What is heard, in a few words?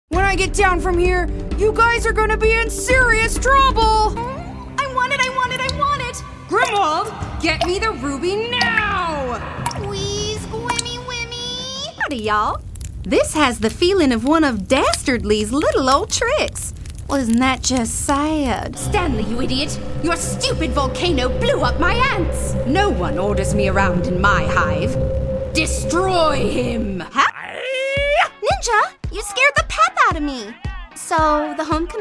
english american animation